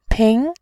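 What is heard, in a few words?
"pin", Mandarin, tone 2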